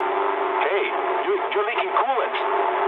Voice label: eng damaged water mood low, speech eng; War Thunder

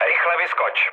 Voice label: bailout, speech cze, mood low; War Thunder

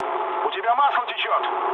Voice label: damaged oil, mood low, speech ru; War Thunder

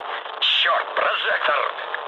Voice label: searchlite, mood low, speech ru; War Thunder

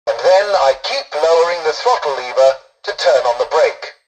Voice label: tutorial dialogue, english; War Thunder

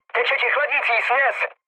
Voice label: damaged water, speech cze, mood low; War Thunder

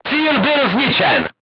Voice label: voice message target destroyed, crew tanks czech

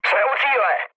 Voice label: near waypoint, speech cze, mood low; War Thunder

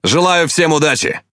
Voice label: Ru Speech Good Luck; Quick Commands

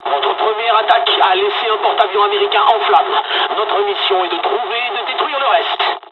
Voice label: dialog, dialogs french; midway; War Thunder

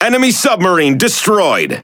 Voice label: En Speech Destroy Enemy Sub; World Of Warships

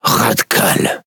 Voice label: Speech Quick Commands Caramba, FR; World Of Warships

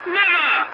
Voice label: voice message no, english; War Thunder

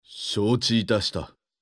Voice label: Neutral YES; Civ5; Oda Nobunaga

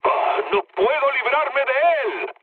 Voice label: aircraft damaged, mood med, speech; War Thunder

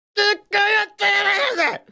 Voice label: Speech Quick Commands Caramba

